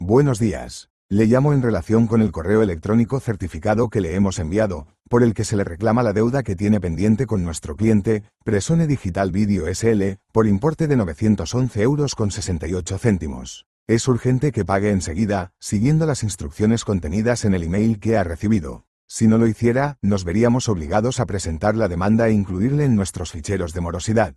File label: audio call